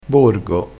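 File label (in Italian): "borgo", dialettale crespinese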